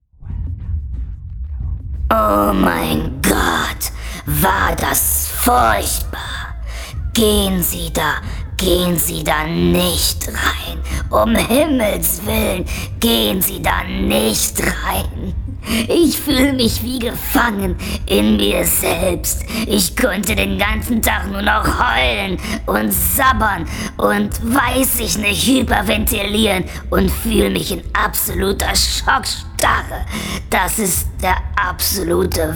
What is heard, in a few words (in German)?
Hoerspiel Gefangener Mann im Flur; Das Hörspiel "Gefangener Mann"; Chaotika/Flur